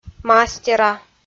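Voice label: "мастера", Komi, female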